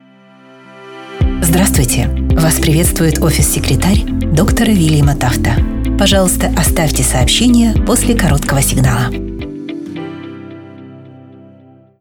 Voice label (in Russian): avtootvetchik, голосовое приветствие; Поможем выбрать и качественно запишем профессионального диктора для голосового приветствия